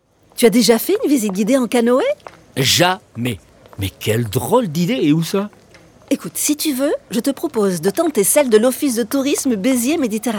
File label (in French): spot radio; beziers canoe